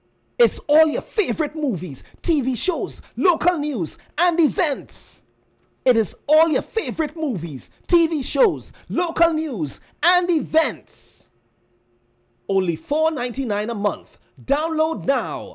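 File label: English Caribbean commercial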